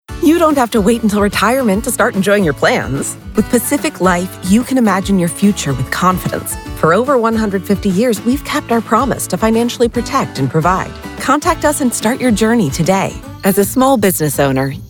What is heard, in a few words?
commercial